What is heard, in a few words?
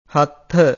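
"hattha", Pali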